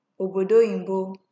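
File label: "obodo oyibo", Nigerian Pidgin, female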